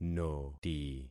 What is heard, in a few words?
"noti", concat syllables; Affixing experiment